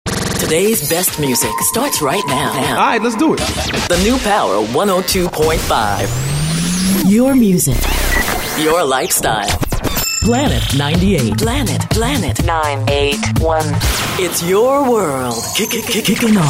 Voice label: Radio Imaging

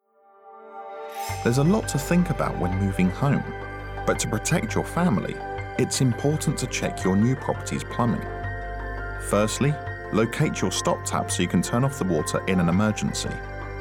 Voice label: E-Learning Reel

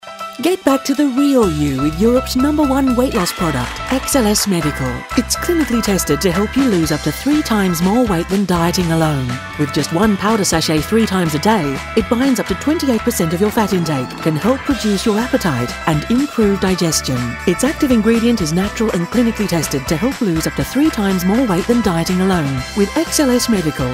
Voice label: weight loss radio ad

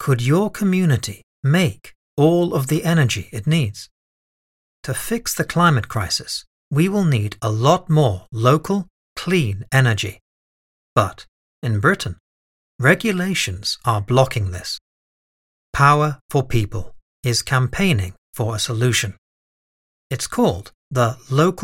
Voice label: Studio Quality Sample

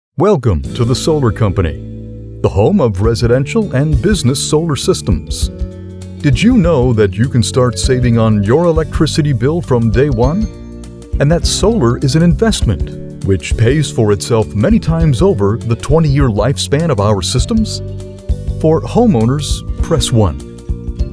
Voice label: Telephone IVR

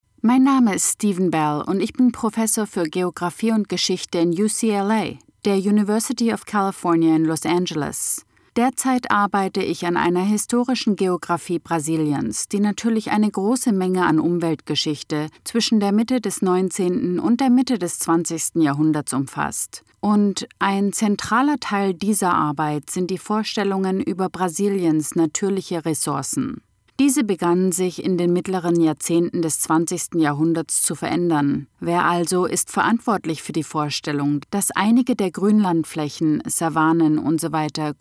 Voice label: German

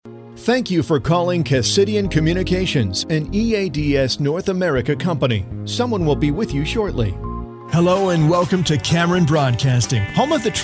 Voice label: Phone IVR On-hold

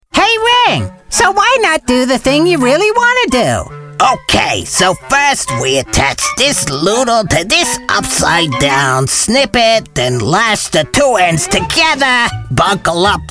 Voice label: Animation Character Voices

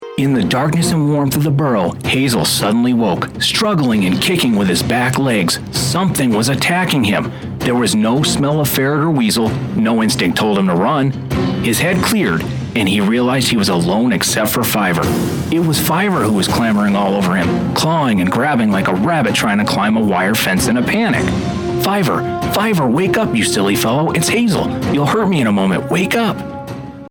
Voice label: Audio Book Narration

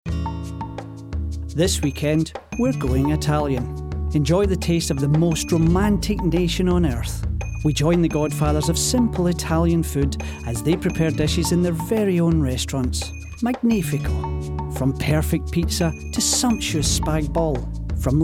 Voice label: TV promo VO

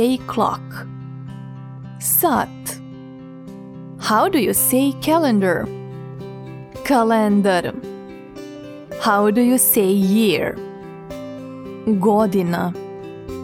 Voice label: Bosnian E learning

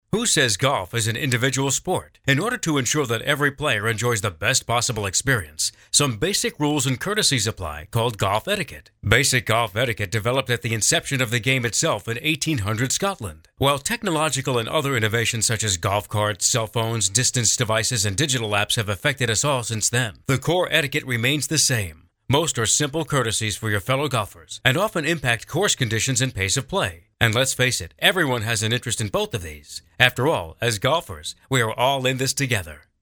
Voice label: Educational

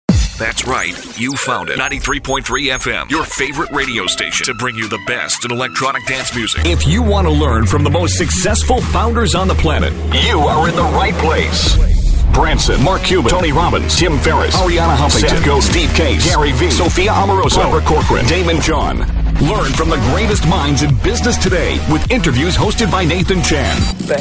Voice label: Imaging

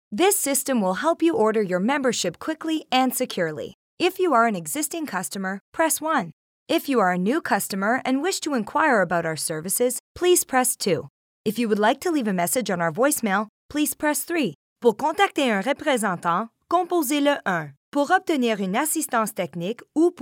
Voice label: trilingual IVR phone

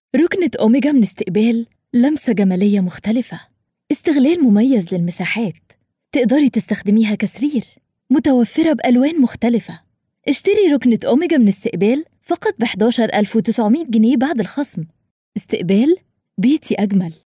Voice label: Ad; istikbal Egypt

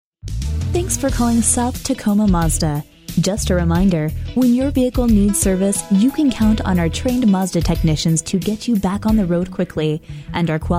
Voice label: voicemail; south tacoma mazda

